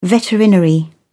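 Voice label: "veterinary", British English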